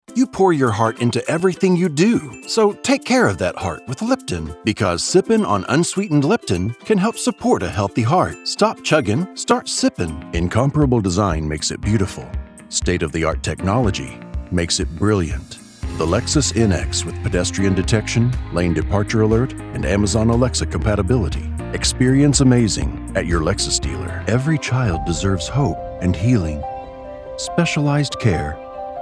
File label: Commercial